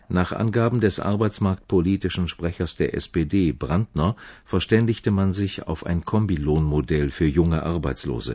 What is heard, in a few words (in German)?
arbeitsmarktpolitischen Sprechers, deaccented nouns; dirndl adjective noun